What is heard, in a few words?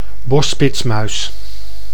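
"bosspitsmuis", Dutch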